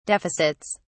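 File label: "deficits", British English, dictionary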